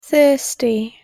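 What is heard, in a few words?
"thirsty" English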